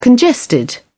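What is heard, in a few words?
"congested", British English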